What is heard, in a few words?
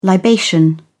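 "libation", British English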